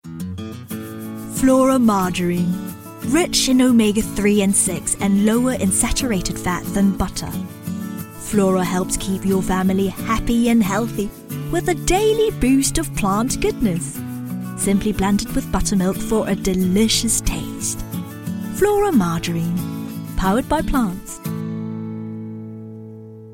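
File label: Commercial, English Accented US; GoLocalise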